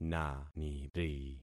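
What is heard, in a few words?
"naniri", concatenated syllables; Affixing experiment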